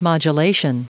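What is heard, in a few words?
"modulation", English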